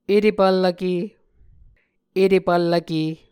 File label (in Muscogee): "etepvllvke", Muscogee